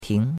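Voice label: "ting2", Mandarin Chinese; Cours-de-Chinois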